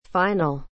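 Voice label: "final", English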